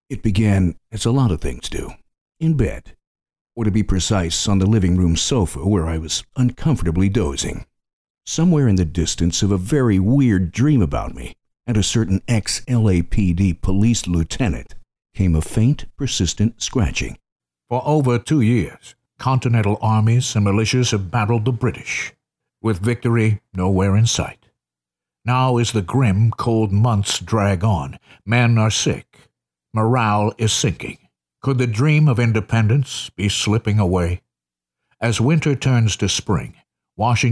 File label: Audibook